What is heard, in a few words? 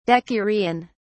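"decurion", British English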